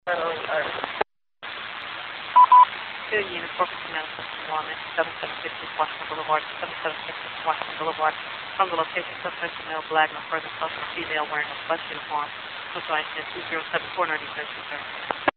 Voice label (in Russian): zvuk peregovorov po racii